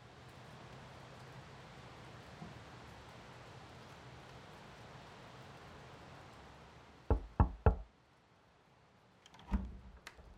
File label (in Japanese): パワハラ女上司の叱られ残業射精 耳元罵倒